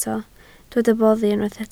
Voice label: "boddi", Welsh